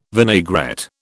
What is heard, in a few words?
"vinaigrette", British